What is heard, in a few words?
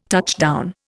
"touchdown", Indian